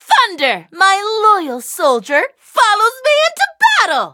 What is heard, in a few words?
loading, en; cookie run